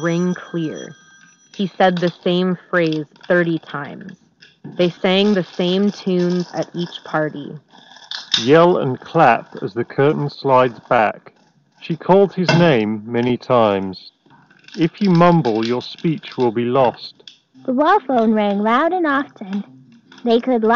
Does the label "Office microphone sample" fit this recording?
Yes